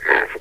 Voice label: "move", metrocop zombie; scmod

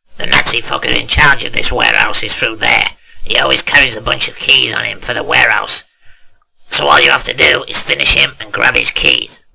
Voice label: old man

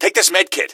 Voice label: health, gasmask; scmod

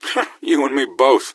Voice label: answer, gasmask; scmod

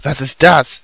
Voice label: dialog

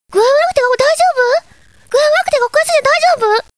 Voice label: chat

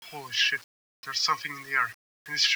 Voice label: intro radio; soldiers

